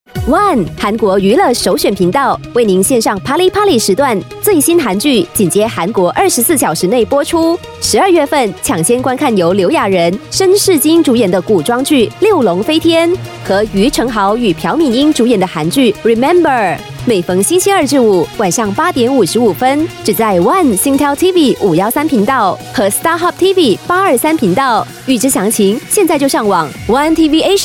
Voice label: Mandarin Xmas Live Read, Mandarin China Female; One TV Asia